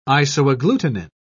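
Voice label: "isoagglutinin", British English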